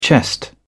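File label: "chest", British English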